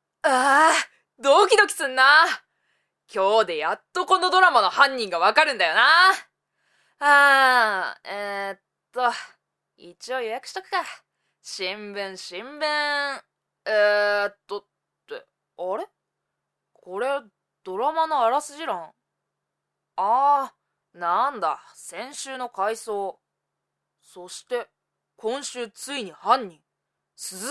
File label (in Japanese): セリフ少年高